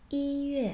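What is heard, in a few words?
"yi yue", Chinese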